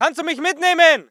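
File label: voice german infantry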